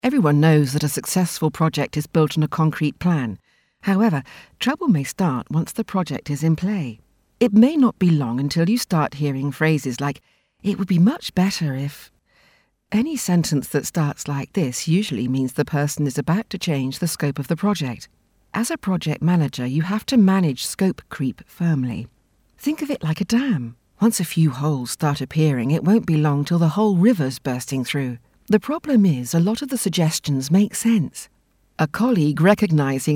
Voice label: E-Learn; Green Shoot

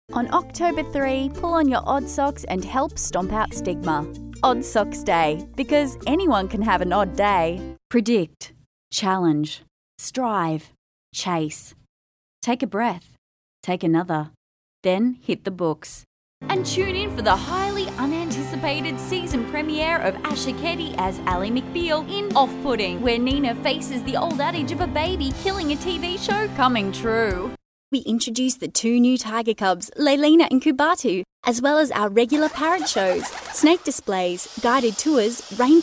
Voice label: Commercial voice UNSLATED

